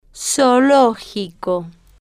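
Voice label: "zoologico", Spanish, female, slow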